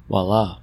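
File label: "voila", American English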